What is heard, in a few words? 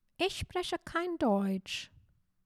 "Ich spreche kein deutsch", German; basic german; essential phrases